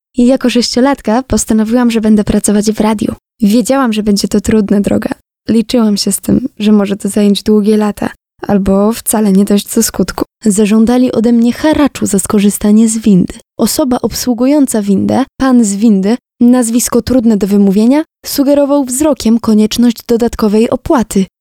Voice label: Polish, Female

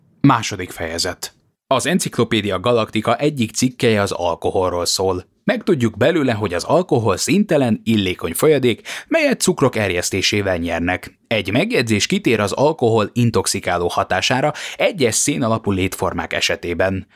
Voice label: Male, Hungarian

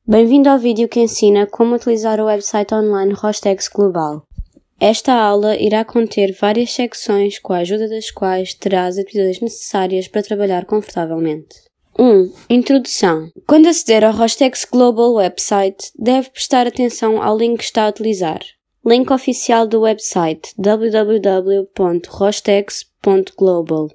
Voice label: Portuguese (Europe), Female

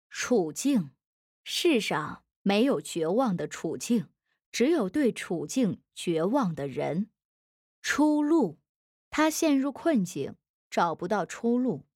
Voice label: Chinese, Female

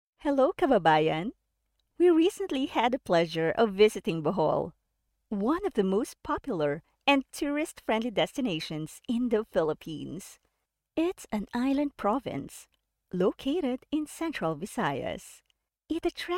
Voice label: English (Asian), Female

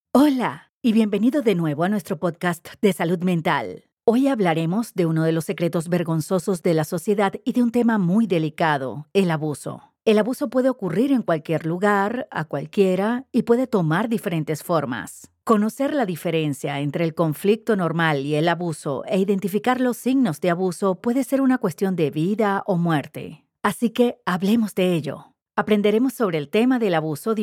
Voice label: Spanish (LA) Female

